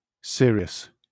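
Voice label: "serious", British English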